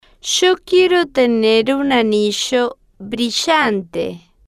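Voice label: yo Quiero Tener Un Anillo Brillante, Spanish, female, slow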